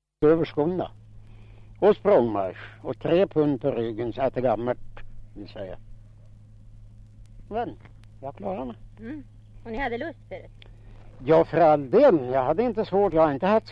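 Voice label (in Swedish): Fellingsbro Västmanland, dialekter, svenska sagor; Folkminnen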